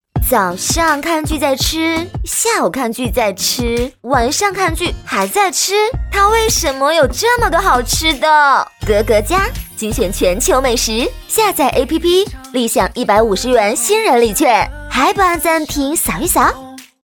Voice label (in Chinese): 女 方言 台湾腔, 国语女声配音; 格格家